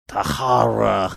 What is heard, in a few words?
funny; the horror